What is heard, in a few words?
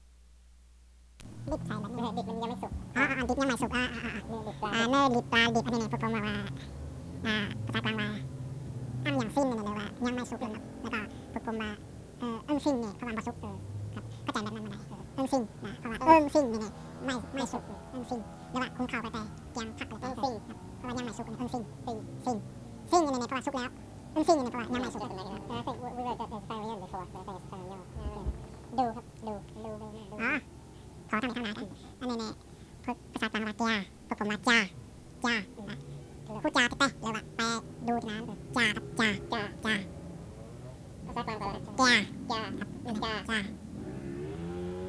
Wa Word list